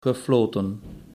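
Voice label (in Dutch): "gefloten" Dutch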